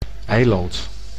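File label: "eiland", Dutch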